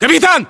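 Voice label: rank captain, battlechatter, Russian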